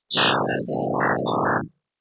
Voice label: neutral anger generated; GAN for speech spectrogram